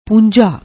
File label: "poonjha", Punjabi